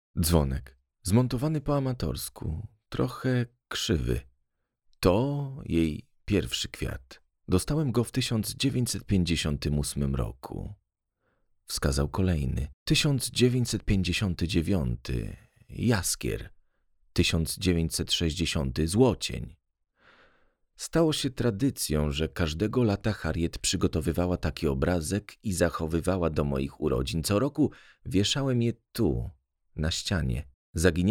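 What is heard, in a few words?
Polish, Audiobook; GoLocalise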